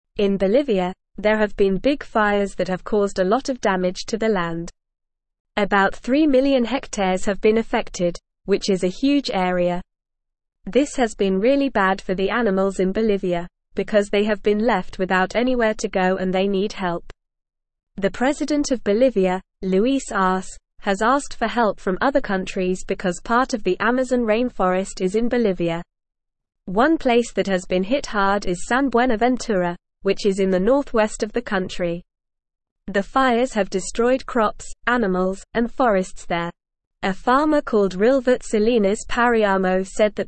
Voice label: Upper Intermediate NORMAL Reading, English; English Newsroom; Bolivias Wildfires Locals Care for Animals Seek I

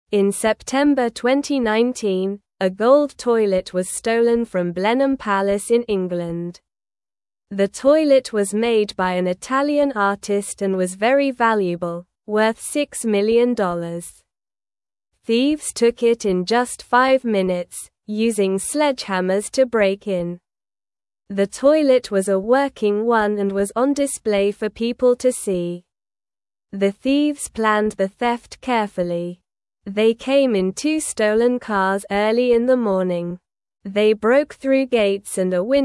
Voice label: English Newsroom Lower Intermediate SLOW Reading; Thieves Steal Fancy Gold Toilet in Just Five Minutes

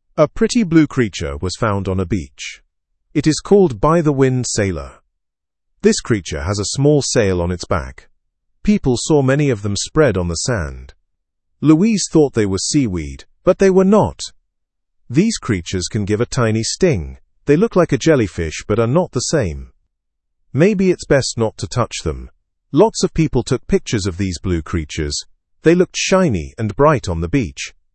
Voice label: learn english through news beginner fast; blue sea creatures wash up on beach

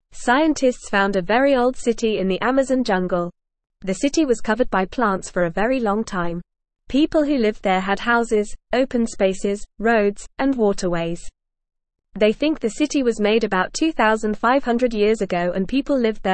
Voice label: English Lower Intermediate FAST Reading; English Newsroom

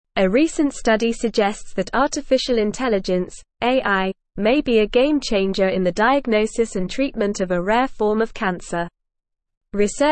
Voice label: English Newsroom Advanced NORMAL Reading; AI Shows Promise in Grading Aggressiveness of Rare Cancer

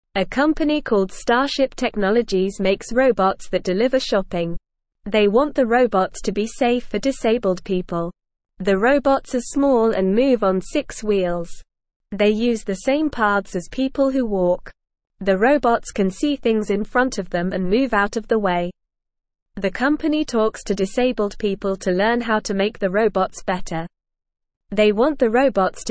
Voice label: English Newsroom Beginner NORMAL Reading; Robots Deliver Shopping Safely for Disabled

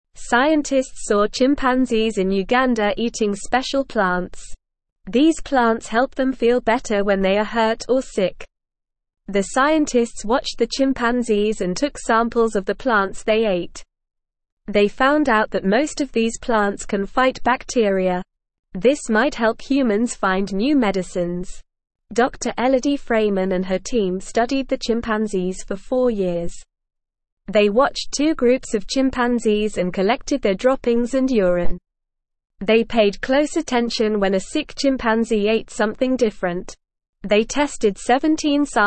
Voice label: English NORMAL Reading; Chimpanzees in Uganda eat special plants for medicine

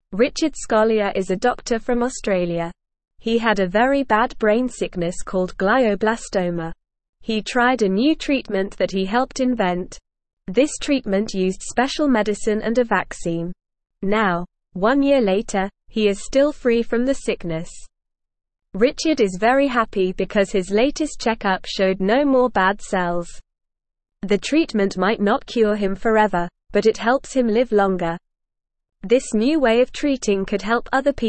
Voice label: English NORMAL Reading; New Treatment Helps Doctor Beat Brain Sickness

